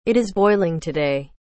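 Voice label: "it is boiling today", English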